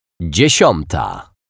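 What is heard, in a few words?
"dziesiata", Polish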